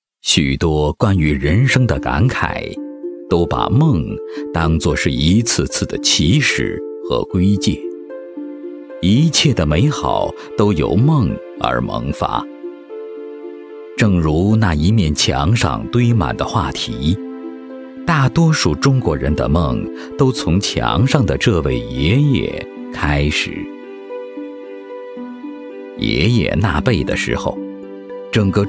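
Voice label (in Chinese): 美食纪录片配音; 舌尖; 仿舌尖中国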